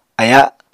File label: "aya7", Apatani